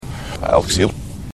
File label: please clap, reverse